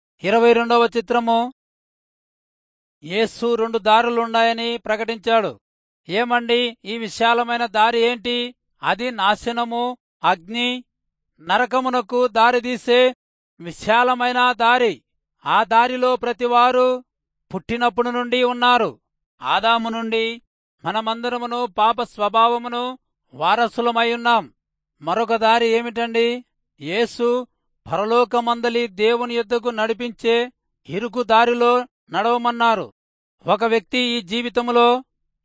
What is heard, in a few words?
telugu rayalseema; good news